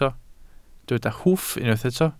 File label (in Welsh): "hwff" Welsh